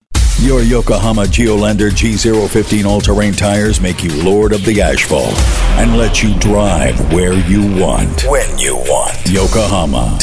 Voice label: english american tv shows